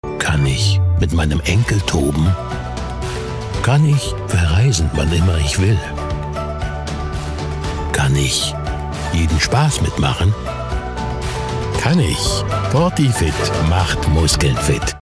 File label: spot; fortifit; Muttersprache Deutsch